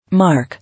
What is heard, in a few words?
"Mark", English